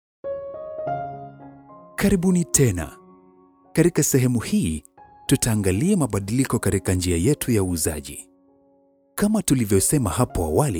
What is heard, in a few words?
swahili corporate videos